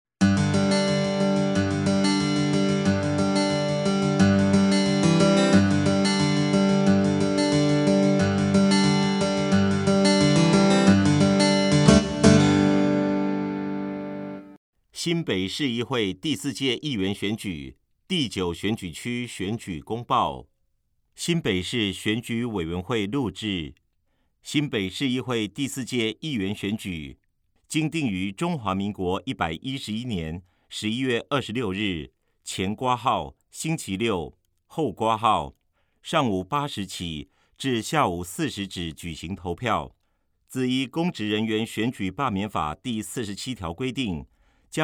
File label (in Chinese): 國語; 新北市; 有聲公報; 市議員; 第9選區